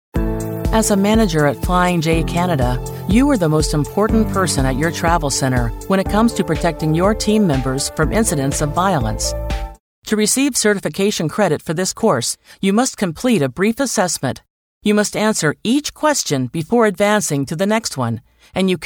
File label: E-Learning